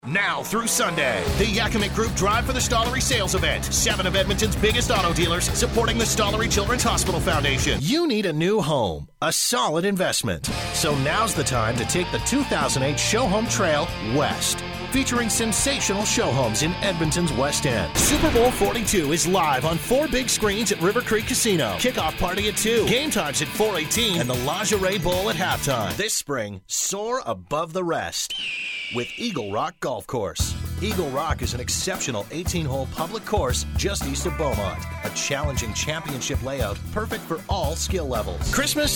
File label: radio commercial montage